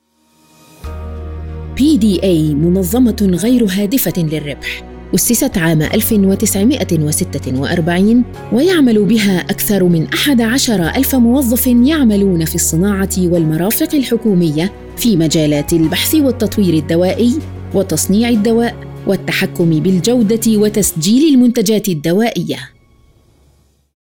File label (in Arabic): Arabic corporate videos